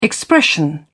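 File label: "expression", British English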